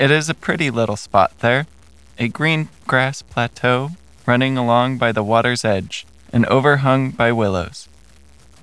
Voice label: male